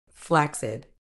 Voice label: "flaccid", medical English

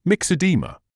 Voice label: "myxoedema", English

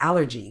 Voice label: "allergy", Medical English

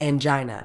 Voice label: "angina", Medical English

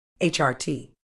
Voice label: "HRT", Medical English

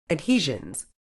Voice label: "adhesions", Medical English

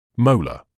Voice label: "molar", English

